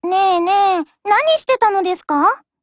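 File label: hello, voice, Japanese; GF Alarm; C96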